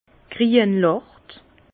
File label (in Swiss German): "grienlacht", Bas Rhin; vin; verdâtre